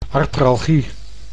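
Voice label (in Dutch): "artralgie", Dutch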